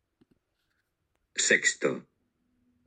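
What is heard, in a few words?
"sexto", Spanish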